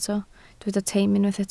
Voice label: "teim", welsh